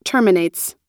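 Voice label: "terminates", US English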